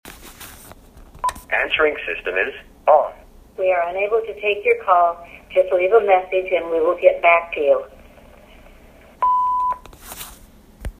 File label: Moms Voice From Recording